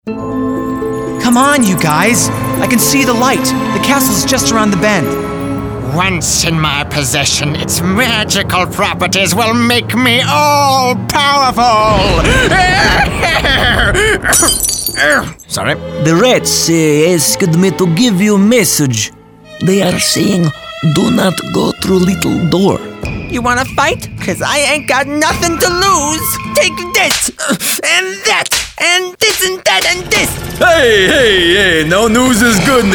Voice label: Animation; Animation Demo